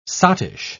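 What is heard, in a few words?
"sottish", British English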